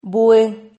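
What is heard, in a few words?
"buhe", Spanish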